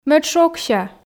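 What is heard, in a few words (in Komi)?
ме ӧд шогся, Komi, female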